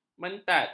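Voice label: "mentat", Malay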